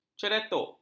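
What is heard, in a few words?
"ceratuk", Malay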